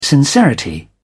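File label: "sincerity", British English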